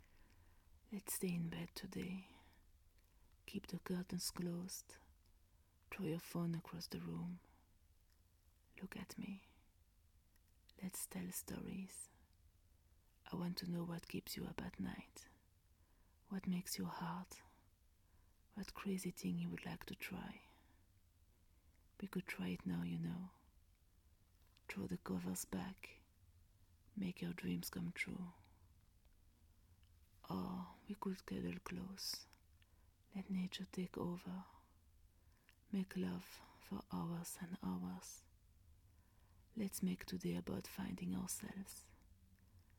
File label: F4A, French Accent, Erotic Poetry; Whimsical; 1min; Stay In Bed With Me; Real-world Romanticism; Let’s Play Hooky